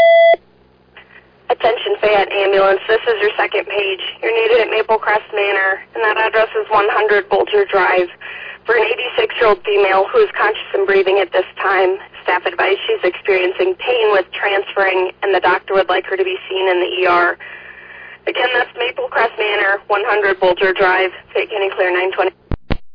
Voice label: Ambulance, dispatch

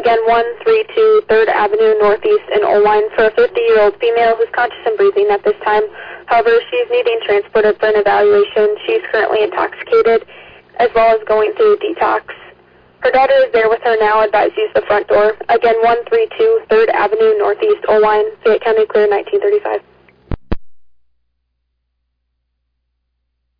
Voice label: Oelwein Ambulance, dispatch

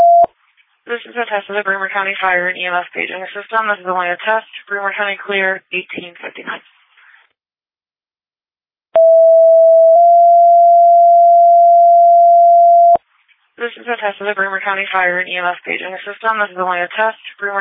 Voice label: All Call, dispatch

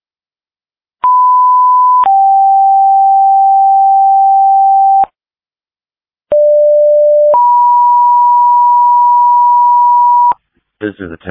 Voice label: Waverly Fire, dispatch

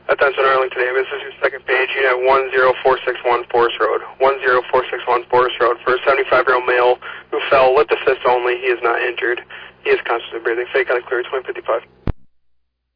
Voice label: Arlington Fire, dispatch

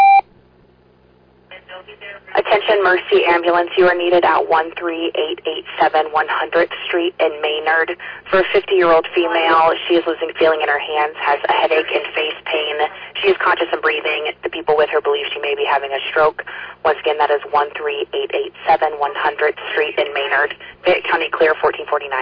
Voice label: Oelwein Ambulance, dispatch

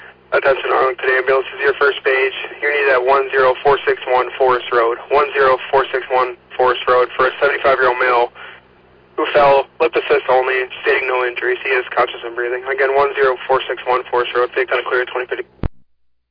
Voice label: Arlington Fire, dispatch

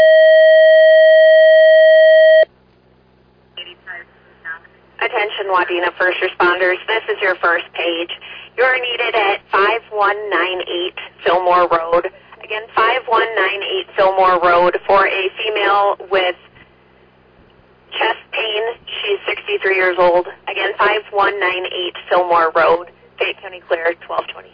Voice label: Fire, dispatch

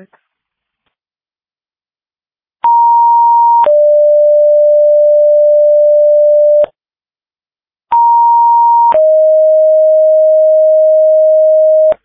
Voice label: Tripoli Fire, dispatch

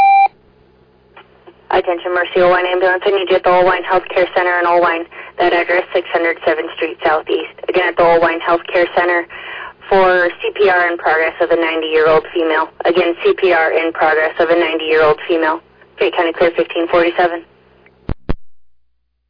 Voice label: Oelwein Ambulance, dispatch

